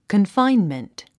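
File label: "confinement", English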